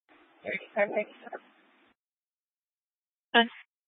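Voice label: Police 1 Dispatch, Scanner audio recording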